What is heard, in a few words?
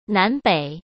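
"南北" Mandarin Chinese; hsk; chinese-flashcards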